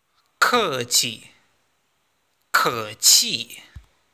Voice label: chinese polite annoying; mandarina